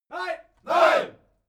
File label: military shout german "no"